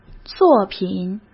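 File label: "作品", Mandarin; chinese flashcards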